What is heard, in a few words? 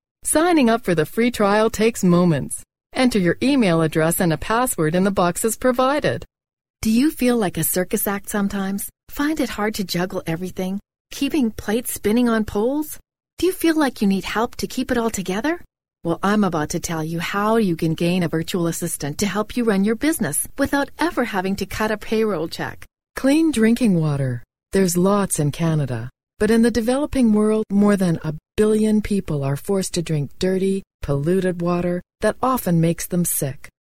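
English Canadian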